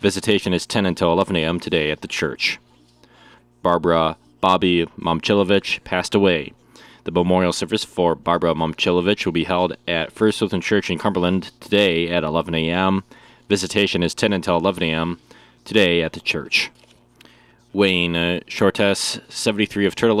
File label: Funeral Announcements